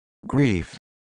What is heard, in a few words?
"grief", English